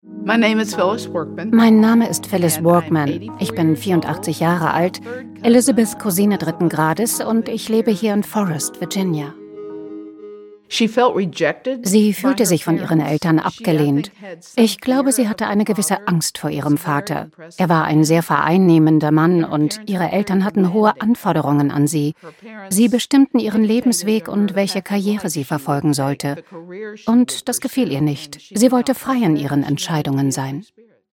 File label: voice over; LOFT VOICES